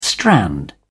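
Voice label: "strand", British English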